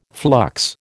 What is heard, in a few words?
"flux", American